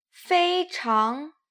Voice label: "feichang", Chinese